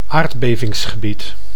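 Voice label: "aardbevingsgebied", Dutch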